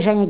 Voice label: "zhene", Tula; zhene (zheni)